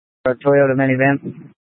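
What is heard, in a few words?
Incident Tactical 3, Scanner audio recording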